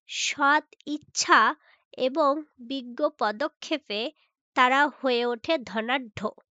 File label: Female